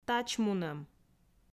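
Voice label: "тачмунӧм", Komi, female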